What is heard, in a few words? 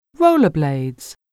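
"rollerblades", English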